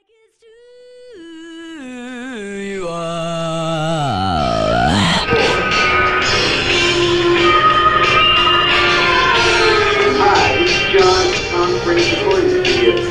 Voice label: Vocal Track Phone Message; circa 1983; Pecan Springs